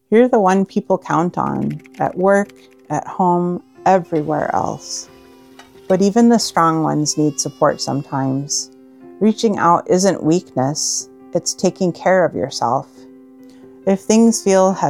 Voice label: RADIO SPOTS